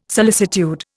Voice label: "solicitude", Indian

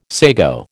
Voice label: "sago", American

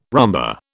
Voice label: "rumba", British

